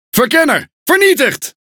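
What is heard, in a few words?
Speech, Dutch; ConsPilots Corr Destroyed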